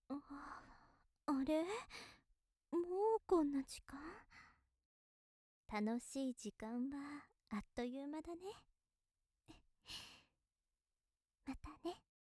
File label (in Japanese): 優しいお姉さん またね